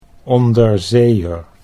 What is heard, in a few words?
"onderzeeër", Dutch